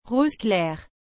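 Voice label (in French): "rose clair", French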